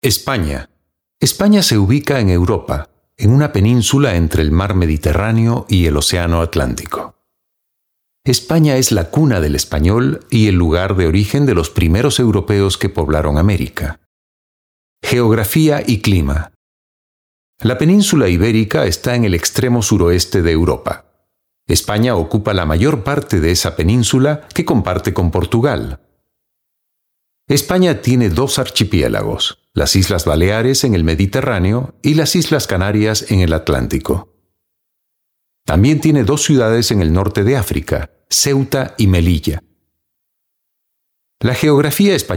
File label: spanish voice over male narrator